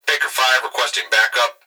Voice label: Emergency Human Male Voice Police Radio Baker 5 Requesting Back-up; emergency effects